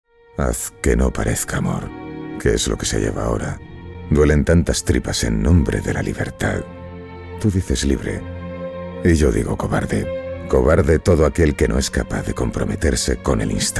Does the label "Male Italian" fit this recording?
No